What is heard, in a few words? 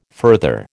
"further", American